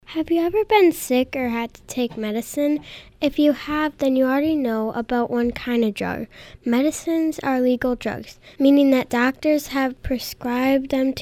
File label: Announcement